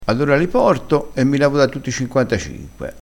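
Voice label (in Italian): "levassi da tutti i", dialettale crespinese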